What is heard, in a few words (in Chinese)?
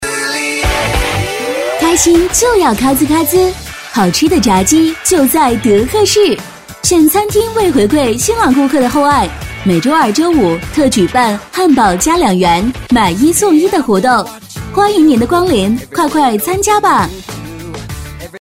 促销, peiyin; 德克士